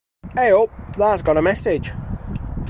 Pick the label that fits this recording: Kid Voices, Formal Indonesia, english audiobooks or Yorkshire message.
Yorkshire message